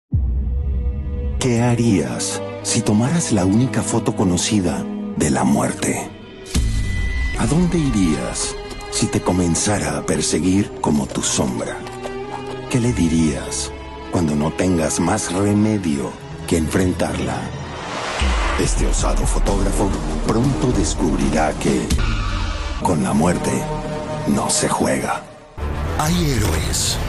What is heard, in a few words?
Spanish Trailer Promo VO